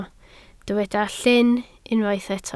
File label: "llyn", Welsh